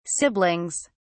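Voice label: "siblings", English, British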